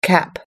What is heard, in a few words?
"cap", US English